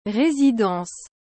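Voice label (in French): "residence", French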